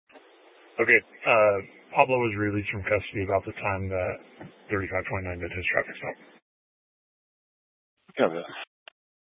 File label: Police Department Dispatch; Grand Junction